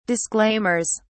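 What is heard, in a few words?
"disclaimers", English, British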